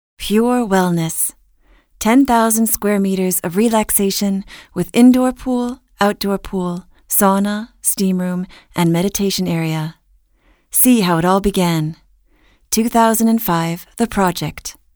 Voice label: image englisch, sprecher